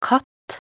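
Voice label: "katt", Norwegian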